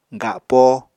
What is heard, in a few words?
"gapo", Apatani